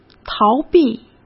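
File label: "逃避", Mandarin Chinese; hsk; chinese-flashcards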